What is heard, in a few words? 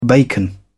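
"bacon", British English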